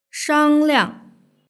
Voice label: "shangliang", Chinese